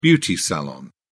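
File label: "beauty salon", British English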